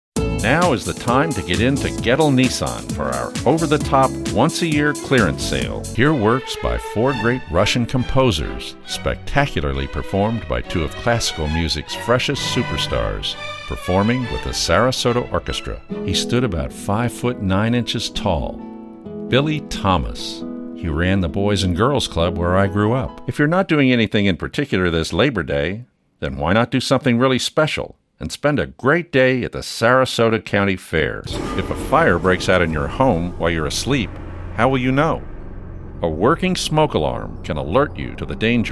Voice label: Spots